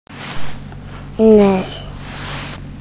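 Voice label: "nei4", Mandarin Chinese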